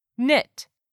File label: "KNIT", english; 3000 words